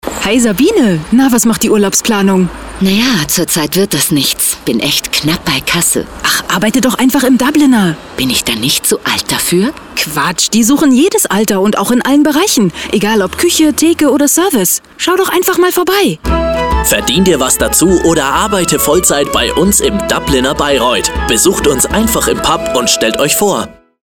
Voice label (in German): Radiospot; Dubliner Bayreuth